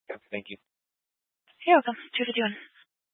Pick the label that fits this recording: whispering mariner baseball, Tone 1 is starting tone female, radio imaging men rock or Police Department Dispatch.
Police Department Dispatch